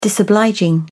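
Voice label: "disobliging", English, British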